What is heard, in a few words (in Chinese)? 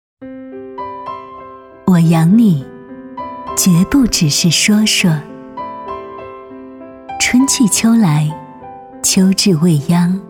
广告 内衣 温柔; 服装; 蝶安芬内衣